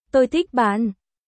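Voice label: Vietnamese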